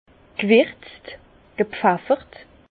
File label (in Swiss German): "gwirzt", Bas Rhin; vin; épicé